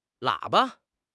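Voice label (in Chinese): "喇叭", Chinese; HSK6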